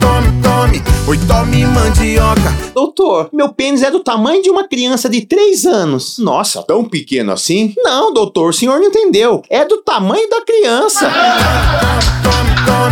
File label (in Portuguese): spot piada; rei da mandioca